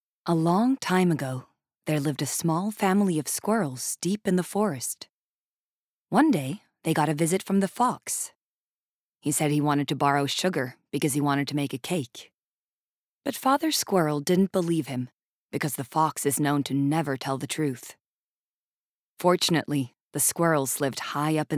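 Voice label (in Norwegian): barnebok, Norwegian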